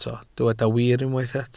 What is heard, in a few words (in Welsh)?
"wir" Welsh